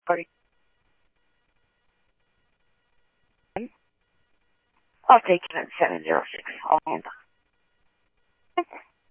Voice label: Scottsdale PD Scottsdale Police, scanner audio